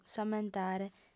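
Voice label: "s'ammentare", female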